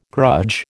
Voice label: "grudge", American

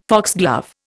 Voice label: "foxglove", Indian

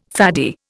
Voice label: "faddy", Indian

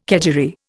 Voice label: "kedgeree", Indian

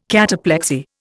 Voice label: "cataplexy", Indian

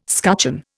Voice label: "scutcheon", Indian

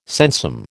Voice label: "sensum", American

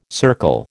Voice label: "circle", American

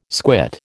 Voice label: "squit", American

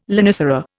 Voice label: "lonicera", Indian

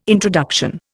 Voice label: "introduction", Indian

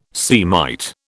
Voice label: "Semite", British

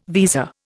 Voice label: "visa", Indian

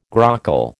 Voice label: "grockle", American

